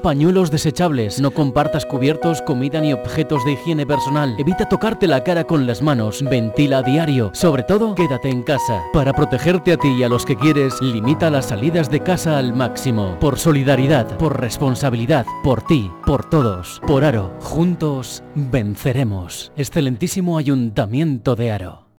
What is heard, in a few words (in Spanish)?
Cuña coronavirus